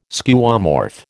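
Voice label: "Skeuomorph", American English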